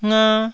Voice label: "nga1", taiwanese syllables